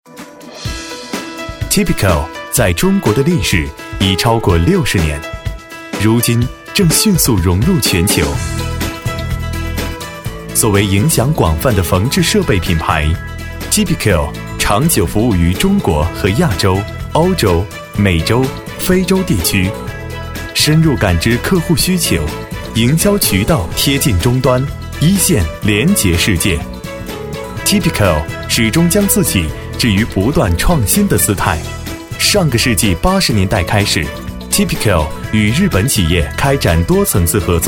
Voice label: 专题男国, 科技动感; 专题片配音

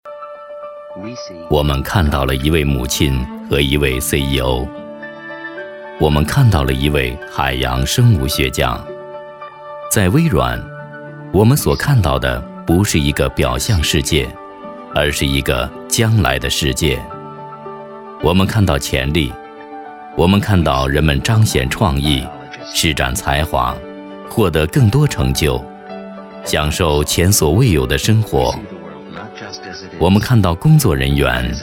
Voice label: 专题男国, 沉稳顺畅; 专题片配音

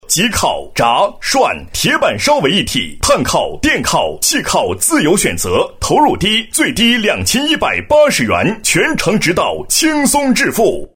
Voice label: 促销广告配音, 男声配音, 激情

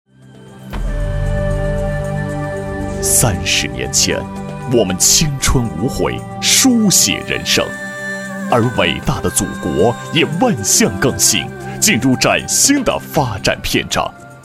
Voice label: 专题男国, 强劲激越; 专题片配音

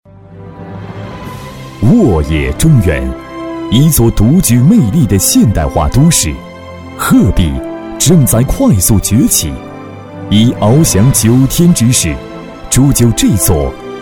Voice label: 专题男国, 浑厚大气; 专题片配音

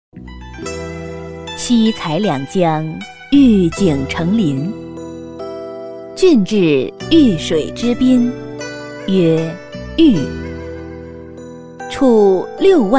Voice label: 专题女国, 成熟亲和; 专题片配音

